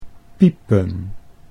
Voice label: "piepen", Dutch